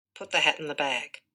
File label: dictation